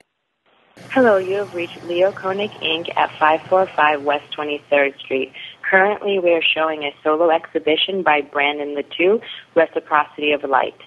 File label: answering machine